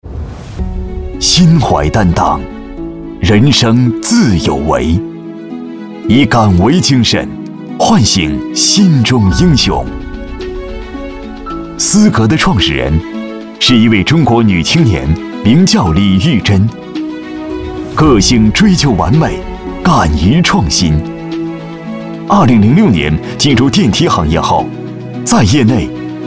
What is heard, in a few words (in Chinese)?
男国 专题 企业 科技; 斯格企业